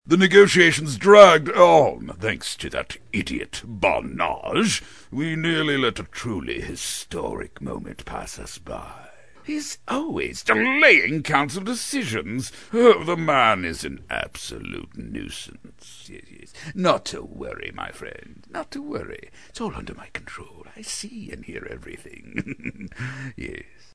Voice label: Emissary, Slothful, Greedy, & Conniving; animation